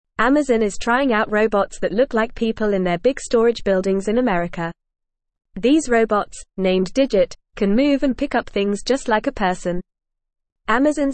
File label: English Newsroom Beginner FAST Reading; Amazon Tests Human Like Robots to Help Workers